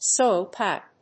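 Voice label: "soil pipe", English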